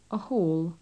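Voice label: a hall, British English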